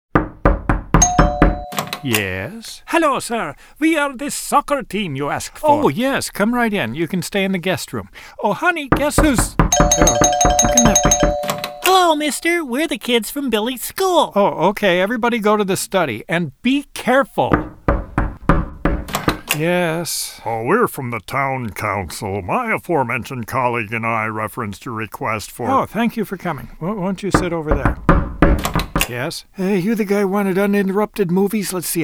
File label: Radio Commercial Cable